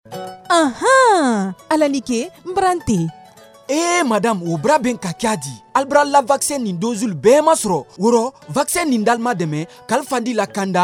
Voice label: SPOT COVAX, MALINKE